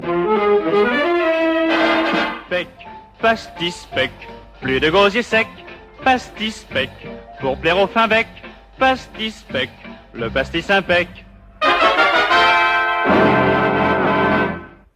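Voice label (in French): spot, spots radio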